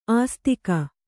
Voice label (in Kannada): "ಆಸ್ತಿಕ", Kannada